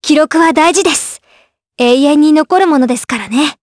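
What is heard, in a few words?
voice dia, voices heroes, Japanese; Leo; kings raid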